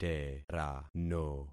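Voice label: "terano", concatenated syllables; Affixing experiment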